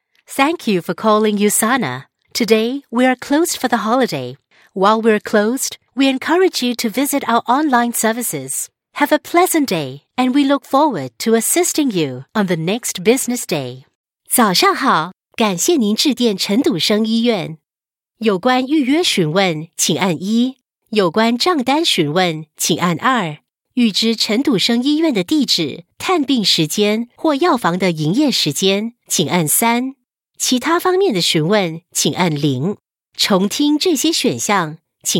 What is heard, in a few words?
singapore voice telephone